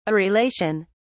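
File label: "areolation", English, British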